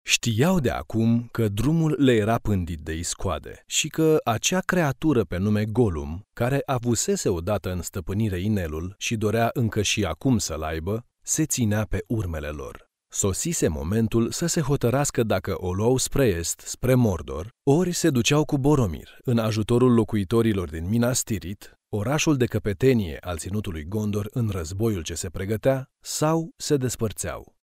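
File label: Romanian, male; romanian voice over talent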